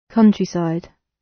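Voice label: "countryside", British English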